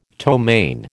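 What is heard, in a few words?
"ptomaine", American English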